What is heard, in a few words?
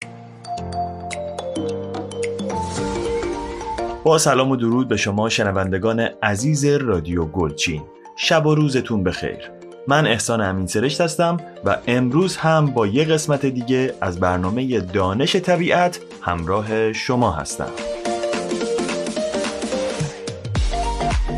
Dari General; GoLocalise